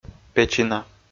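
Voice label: "печина", Komi, male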